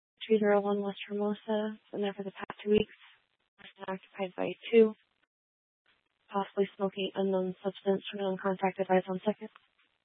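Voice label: Tempe Police Department, scanner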